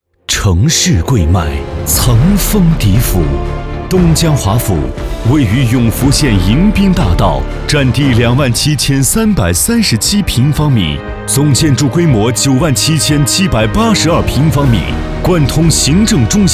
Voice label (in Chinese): 广告 高端大气 地产